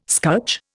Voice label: "scutch", Indian